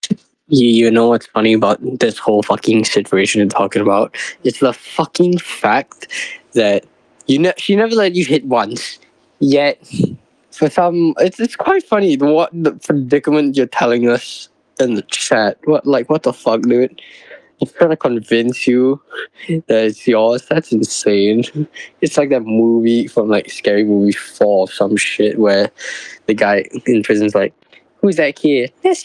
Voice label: voice message